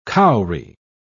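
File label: "cowry", British English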